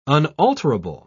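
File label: "unalterable", British English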